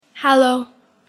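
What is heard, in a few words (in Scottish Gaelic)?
"halo", Scottish Gaelic pronunciation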